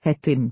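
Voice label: "het Tin", Dutch; Natuur samenvatting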